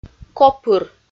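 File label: "копыр", Komi, female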